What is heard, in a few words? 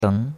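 "deng2", Chinese; Cours de Chinois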